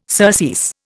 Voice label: "surcease", Indian